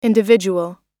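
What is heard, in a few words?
"individual", American English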